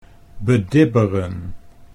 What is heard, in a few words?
"bedibberen", Dutch